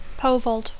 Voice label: "pole vault", English; Dacco

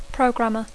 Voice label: "programmer", English; Dacco

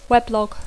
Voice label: "weblog", English; Dacco

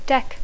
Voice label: "deck", English; Dacco

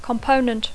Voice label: "component", English; Dacco

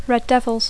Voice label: "Red Devils", English; Dacco

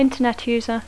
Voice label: "Internet user", English; Dacco

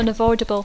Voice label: "unavoidable", English; Dacco

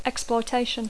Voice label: "exploitation", English; Dacco

